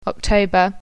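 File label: "october", English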